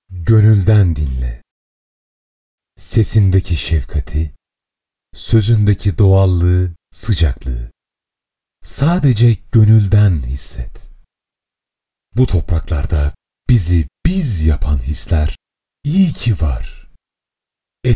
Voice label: Turkish male; Etiburcak